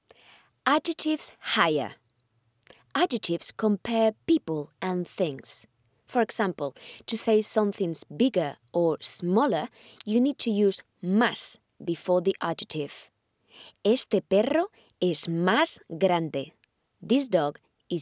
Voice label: female, Spanish Castilian, English with Spanish accent educational; bbc